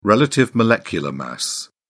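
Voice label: "relative molecular mass", British English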